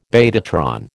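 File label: "betatron", American English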